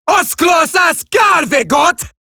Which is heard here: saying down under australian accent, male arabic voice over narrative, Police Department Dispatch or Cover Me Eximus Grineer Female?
Cover Me Eximus Grineer Female